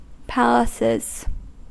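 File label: "palaces", American English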